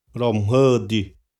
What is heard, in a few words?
"romhö di", xavante